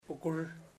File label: cloud, chamacoco; Puerto Diana